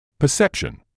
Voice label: "perception", English